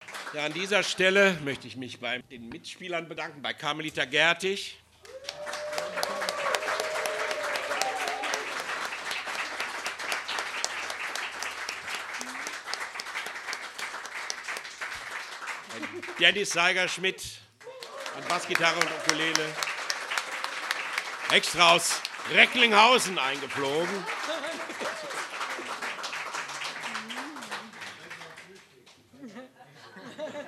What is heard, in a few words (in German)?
ansage; abgesang